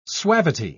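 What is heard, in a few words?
"suavity", British English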